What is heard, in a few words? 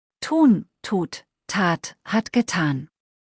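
"tun", German; verben kurzliste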